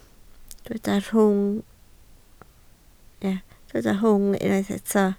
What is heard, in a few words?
"rhwym", Welsh